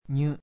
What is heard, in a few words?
"nyu", kana